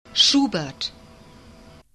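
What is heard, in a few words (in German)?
"Schubert", German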